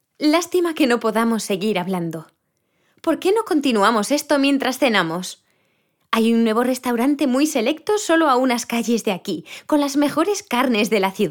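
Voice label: Spanish, Spain, e-learning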